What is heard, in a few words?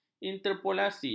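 "interpolasi", Malay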